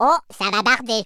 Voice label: uh oh, speech, French